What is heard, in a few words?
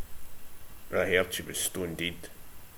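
"deid", slang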